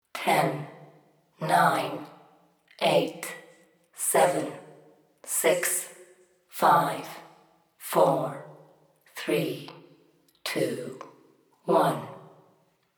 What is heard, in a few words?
lektor; Galaxy race